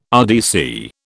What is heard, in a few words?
"RDC", British